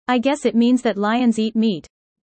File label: user fast; Animal friends